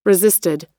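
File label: "resisted", US English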